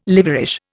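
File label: "liverish", Indian English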